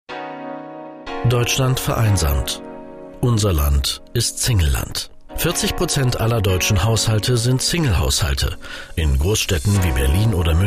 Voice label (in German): dokumentarisch imagefilm